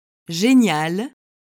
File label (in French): "génial", French